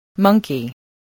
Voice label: "monkey", voice, spelling